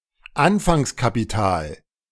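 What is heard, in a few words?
"Anfangskapital", German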